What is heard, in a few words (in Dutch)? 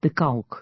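"de kalk", Dutch; Natuur samenvatting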